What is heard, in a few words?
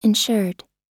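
"insured", American English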